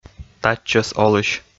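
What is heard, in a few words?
"татчӧс олысь", Komi, male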